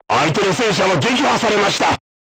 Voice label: voice message target destroyed, crew tanks japanese